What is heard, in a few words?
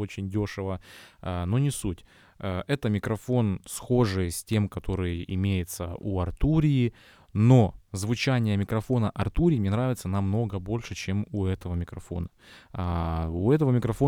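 uad volt mic test voice; UAD Volt Mic - Тест Голос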